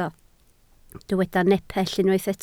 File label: "nepell", Welsh